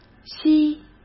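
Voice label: "吸" Mandarin Chinese; hsk; chinese-flashcards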